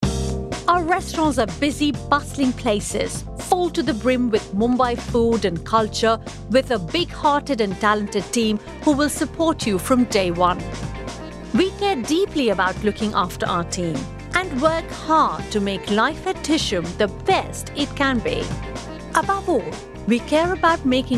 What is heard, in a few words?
Corporate